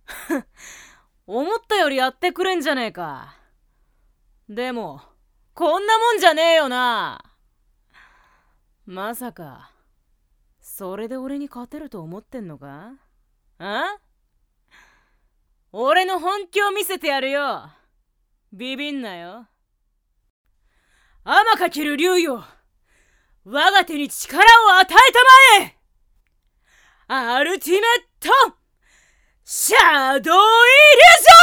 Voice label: boy; 少年; ボイスサンプル